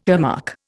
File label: "earmark", Indian